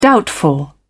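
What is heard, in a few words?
"doubtful", British English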